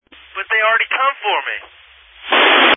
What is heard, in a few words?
Family Radio Service (FRS)